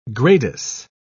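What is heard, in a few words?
"gradus", English, British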